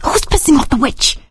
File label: witch getting angry, survivor voice; Left 4 Dead 2; producer